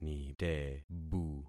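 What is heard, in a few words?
"nitebu", concatenated syllables; Affixing experiment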